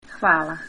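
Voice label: "thanks", Tibetan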